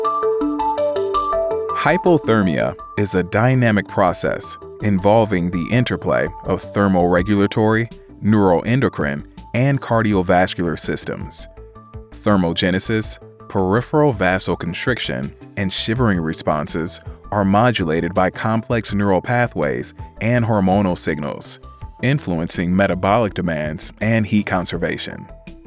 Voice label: Hypothermia Medical Narration